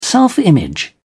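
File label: "self image", British English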